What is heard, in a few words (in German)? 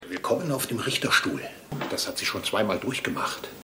Mann